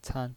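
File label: "can", Mandarin Chinese, neutral tone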